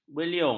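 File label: "beliung", Malay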